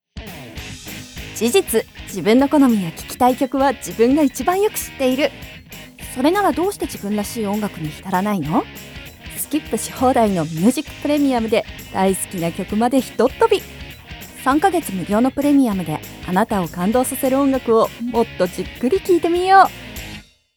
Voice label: japanese podcasts